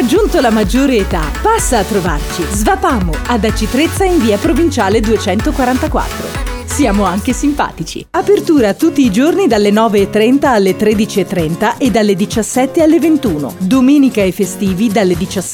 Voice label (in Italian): spot radiofonico; SVAPAMU